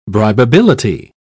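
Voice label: "bribability", English, British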